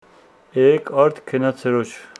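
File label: Homshetsi yesterday you didnt go to the field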